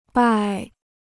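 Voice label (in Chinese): "败" Mandarin Chinese; 败 (bài): to defeat; to damage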